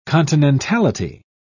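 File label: "continentality", British English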